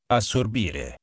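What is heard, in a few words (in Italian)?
"assorbire", italiano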